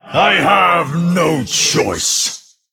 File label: Male Human Attack